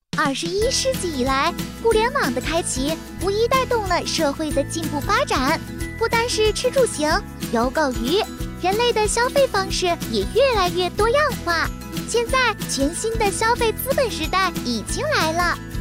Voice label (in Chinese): 女童 产品宣传